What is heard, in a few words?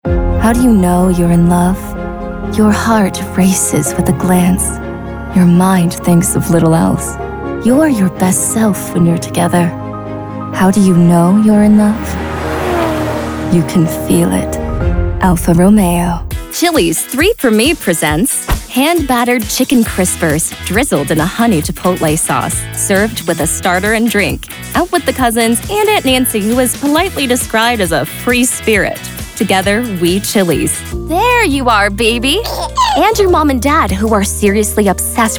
vo commercial